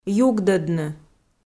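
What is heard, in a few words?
"югдӧдны", Komi, female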